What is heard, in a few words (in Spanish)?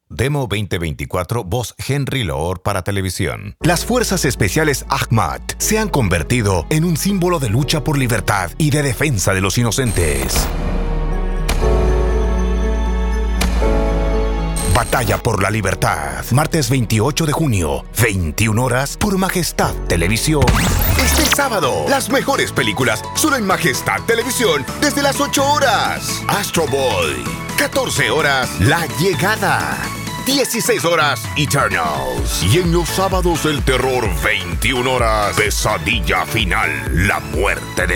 voz tv; DJ Drops